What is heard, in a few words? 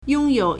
"yong1 you3", Chinese